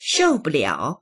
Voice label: "shou4buliao3", voices; shòubùliǎo; 受不了; 耐えられない

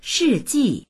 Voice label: "shi4ji4", voices; 世纪; 世紀; dictionary

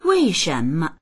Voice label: "wei4shen2me", voices; 为什么; なぜ、どうして

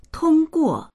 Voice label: "tong1guo4", voices

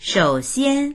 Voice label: "shou3xian1", voices; 首先; まずはじめに

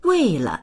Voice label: "wei4le", voices; 为了; …のために

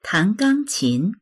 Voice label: "tan2gang1qin2", voices; ピアノを弾く

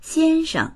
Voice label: "xian1sheng", voices; 先生; 男性に対する敬称（～さん）; dictionary

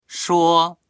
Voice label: "shuo1", voices; 说; 話す

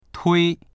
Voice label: "tui1", voices; 推; 押す

